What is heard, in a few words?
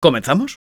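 battle cry, Spanish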